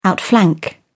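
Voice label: "outflank", British English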